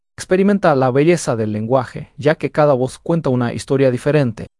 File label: Es Male voice